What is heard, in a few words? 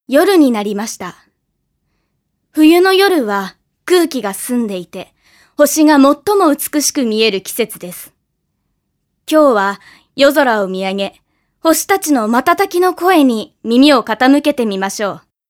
female voice